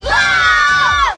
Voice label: "woah" kids

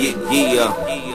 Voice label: "yeah yeah", voice

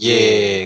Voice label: "yeah", group of men

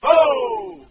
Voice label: "ho" group of men

